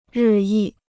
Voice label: "日益", Chinese